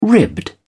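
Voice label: "ribbed", British English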